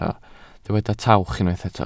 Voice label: "tawch", Welsh